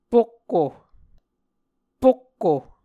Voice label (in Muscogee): "pokko", Muscogee; A Dictionary of Creek/Muskogee; SIL International